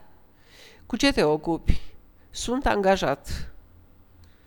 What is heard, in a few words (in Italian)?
dati, rumeno; frasi utili